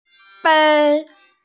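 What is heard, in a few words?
"bei1", Mandarin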